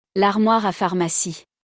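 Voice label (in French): "L armoire a pharmacie", French; vocabulaire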